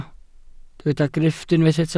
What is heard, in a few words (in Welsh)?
"grifft", Welsh